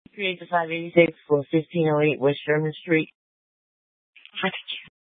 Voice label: Phx PD Dispatch, scanner

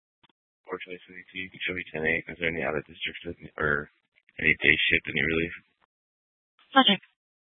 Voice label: Dispatch, scanner; TOPAZ Regional Wireless Cooperative (TRWC) - Mesa Simulcast; Mesa PD; 4 Superstition Dispatch

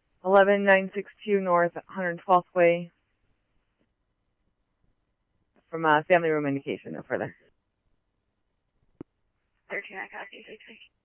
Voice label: scanner, Scottsdale Police

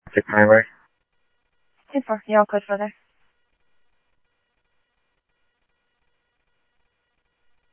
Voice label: Mesa Simulcast, MESA PD, scanner audio

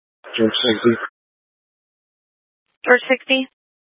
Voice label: Mutual Aid-Intersystem Interop B, scanner

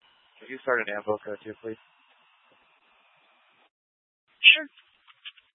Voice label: Fire Departments, scanner

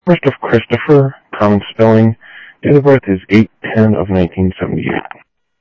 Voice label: Sheriff Common, scanner audio; ThompsonPeakScot; MCSO - Info Sheriff - Common

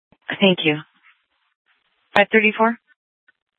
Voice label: Sheriff, scanner P25

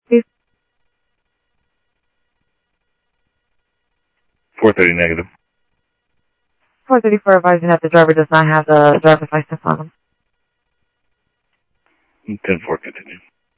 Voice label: South Scottsdale Sheriff, scanner audio

